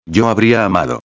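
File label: "habria amado", Spanish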